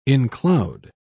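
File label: "encloud", British English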